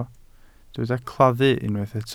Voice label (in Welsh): "claddu", Welsh